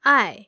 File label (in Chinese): "ai4", Chinese